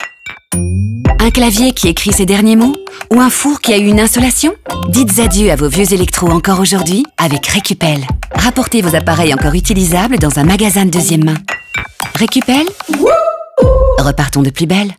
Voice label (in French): magasin de deuxiemme main radio; recupel; fr